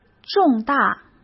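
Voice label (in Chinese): "重大", Mandarin Chinese; hsk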